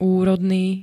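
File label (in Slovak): "úrodný", Slovak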